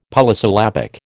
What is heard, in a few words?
"Polysyllabic", American